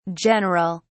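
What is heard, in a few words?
"general", British English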